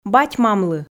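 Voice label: "бать-мамлы", Komi, female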